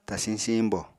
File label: "tasiñ siiñbo", apatani